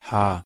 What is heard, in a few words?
"h" pronunciation de